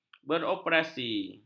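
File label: "beroperasi", Malay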